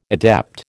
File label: "adept", American